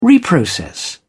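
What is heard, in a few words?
"reprocess", British English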